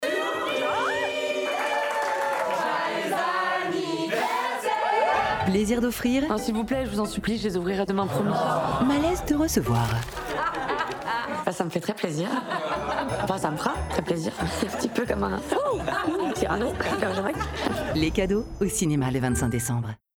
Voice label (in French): spot cine; Les CADEAUX